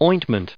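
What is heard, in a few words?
"ointment", US English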